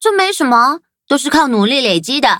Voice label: levelup zh-hant

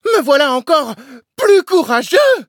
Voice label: levelup, fr; Cookie Run

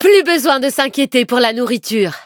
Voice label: victory, fr

